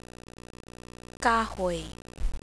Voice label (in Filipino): kahoy, Diphthongs, tagalog; kahoy (tree); Dipthongs